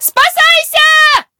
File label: enemy, characters voice human woman fight; Stalker Anomaly